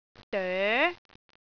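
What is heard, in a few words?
"doe2", Cantonese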